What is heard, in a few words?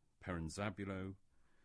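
"Perranzabuloe", English; place-names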